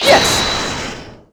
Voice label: "Yes!" voice crack; Ryuunosuke; Badge